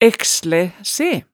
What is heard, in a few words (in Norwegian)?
"ækslæ se", hemsedalsmaalet tale